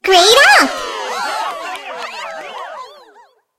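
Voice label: guild grade up, English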